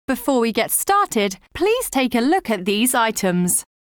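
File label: onboarding provision terms British English; Bixby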